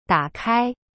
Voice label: "打开", Chinese; da kai